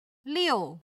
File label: "liu", Chinese